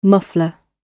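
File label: "muffler", English; OED audio and pronunciations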